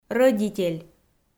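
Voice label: "рӧдитель", Komi, female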